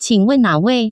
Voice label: qingwen nawei, Chinese, Taiwan, phone call